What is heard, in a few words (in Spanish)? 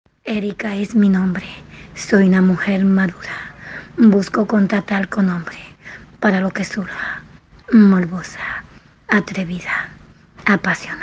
Locución